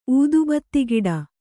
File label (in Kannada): "ಊದುಬತ್ತಿಗಿಡ", Kannada